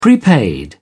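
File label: "prepaid", British English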